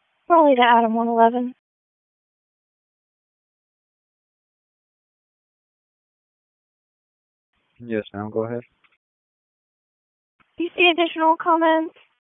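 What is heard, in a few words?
Police Dispatch; Raleigh; Southwest District; p25; wake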